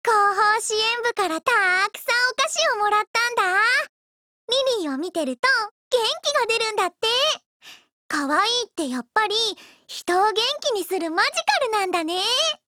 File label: DIALOGUE, JP; Lily